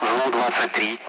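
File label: Speech Sound Russian Common Allied Flight; AirDefence; ZU-23; DCS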